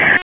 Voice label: Police Downtown District Tac 1; Raleigh; wake